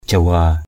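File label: "jawa", Eastern Cham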